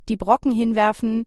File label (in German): die Brocken hinwerfen, German; to throw the chunks